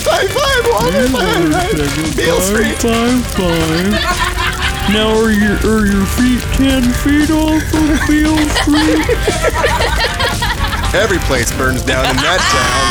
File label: Slow Talking